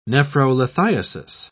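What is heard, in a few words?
"nephrolithiasis", British English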